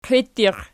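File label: "qiittiq" nominative, Archi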